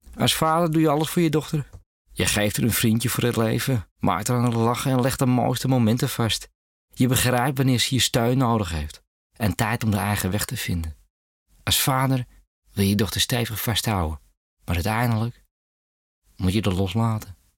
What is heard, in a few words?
Amsterdams